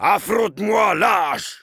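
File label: Heavy melee dare, French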